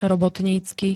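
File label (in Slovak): "robotnicky", Slovak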